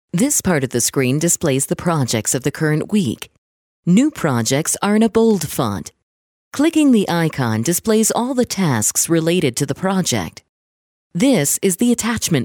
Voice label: ENGLISH (USA) Female